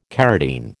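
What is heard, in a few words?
"carotene", American English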